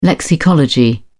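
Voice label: "lexicology", British English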